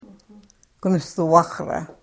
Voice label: karuk